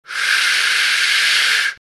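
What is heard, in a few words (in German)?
"sch", german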